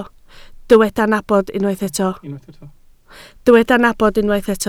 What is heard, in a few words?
"nabod", Welsh